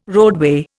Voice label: "roadway", Indian

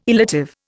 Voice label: "illative", Indian

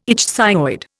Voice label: "ichthyoid", Indian

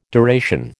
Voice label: "deration", American

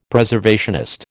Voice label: "Preservationist", American

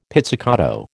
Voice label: "pizzicato", American

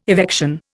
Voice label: "evection", Indian

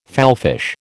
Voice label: "fallfish", American

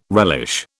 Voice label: "relish", British

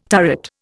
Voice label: "turret", Indian

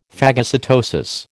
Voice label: "phagocytosis", American

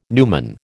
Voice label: "numen", American

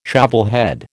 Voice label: "shovelhead", American

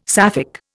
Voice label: "Sapphic", Indian

